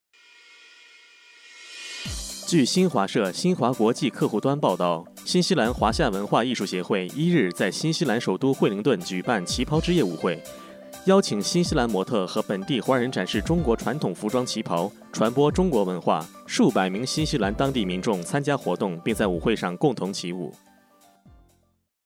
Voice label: news